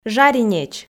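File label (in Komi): "жаринеч", Komi, female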